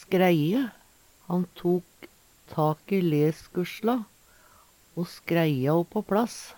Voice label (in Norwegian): skreie, Numedalsmål; Han tok tak i leskussla o skreia`o på plass.; Verb; Veggli